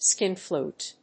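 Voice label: "skin flute", English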